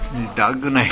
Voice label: "midagunasu", minamiyamagata